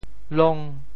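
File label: "long5", Teochew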